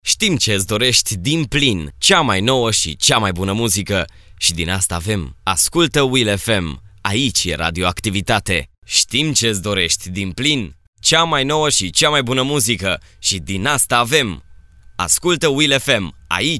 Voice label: male, Romanian